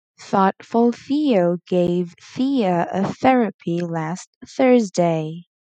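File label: Tongue Twister θ, pronunciation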